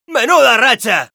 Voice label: Scout positive vocalization, es; ¡Menuda racha!

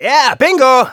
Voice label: scout yes, de; "Ja, Bingo!"

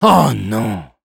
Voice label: negative vocalization, fr; Oh, non…; Spy

